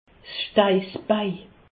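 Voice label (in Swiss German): "steissbei", Haut Rhin; medecine; sacrum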